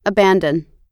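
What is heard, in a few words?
"abandon", American English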